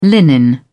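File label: "linen", British English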